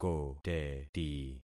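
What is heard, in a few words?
"koteti", concatenated syllables; Affixing experiment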